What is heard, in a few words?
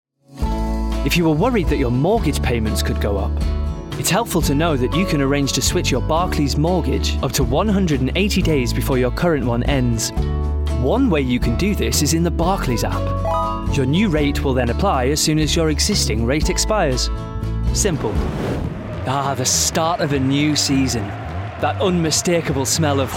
commercial